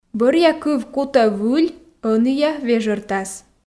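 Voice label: "бӧръя кыв кутӧ выль ӧнія вежӧртас", Komi, female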